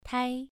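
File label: "tai", Mandarin Chinese syllable, second tone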